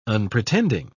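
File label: "unpretending", British English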